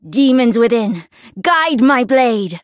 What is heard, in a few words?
Voice variable chant; tsukiha